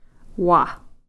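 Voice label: "wa", Koasati word for "with"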